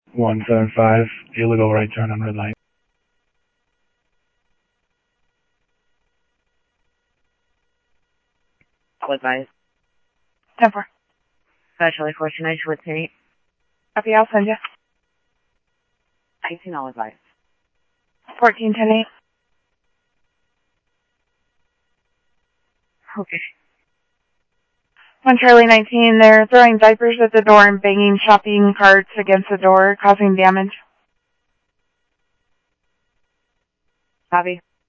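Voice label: Sheriff East County, scanner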